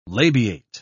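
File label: "labiate", British English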